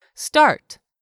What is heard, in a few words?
"START", english; 3000 words